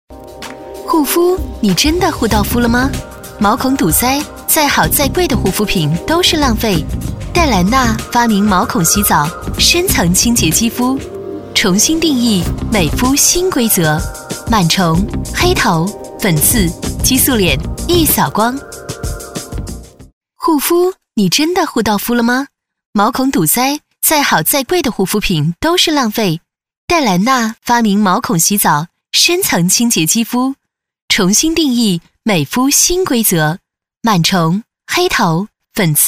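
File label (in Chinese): 女S112 国语 女声 广告 化妆品 大气 积极向上 神秘性感 调性走心 亲切甜美; 黛兰娜化妆品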